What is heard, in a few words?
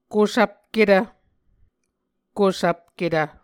"kusvpketv", Muscogee